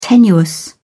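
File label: "tenuous", British English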